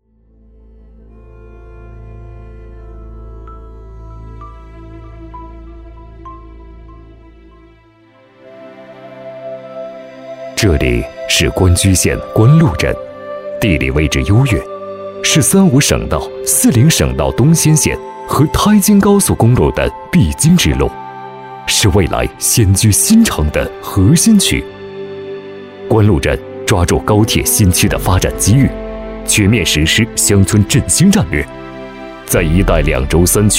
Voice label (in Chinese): 地理介绍; 官路